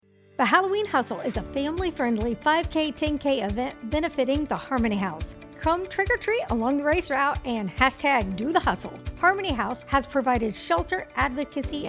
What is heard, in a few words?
radio mix promo